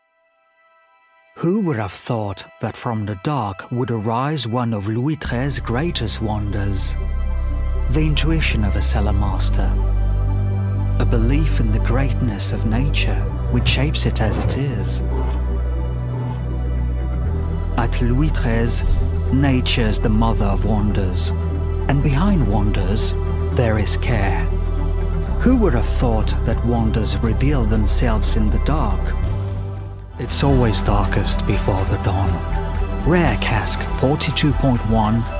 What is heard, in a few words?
English - Beautiful Narration, Male; Corporate; Louis XIII Cognac; Rare Cask 42.1; Care for wonders